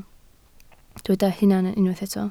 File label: "hunan", Welsh